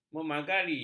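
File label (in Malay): "memagari", Malay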